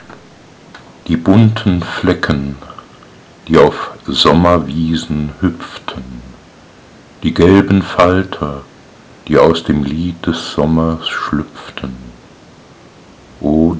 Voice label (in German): Die weibliche Stimme